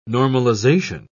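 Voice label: "normalisation", British English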